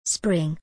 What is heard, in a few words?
"Spring", English